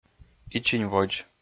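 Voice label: "ичиньводз", Komi, male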